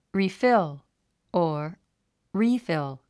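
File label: "refill", English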